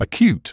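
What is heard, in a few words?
"acute", British English; əˈkjuːt; uh-KYOOT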